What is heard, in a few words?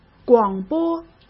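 "广播", Mandarin Chinese; hsk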